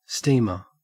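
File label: "steamer", Australian English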